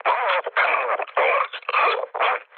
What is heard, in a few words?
damaged pilot, mood low, speech hun; War Thunder